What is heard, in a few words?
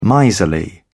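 "miserly", British English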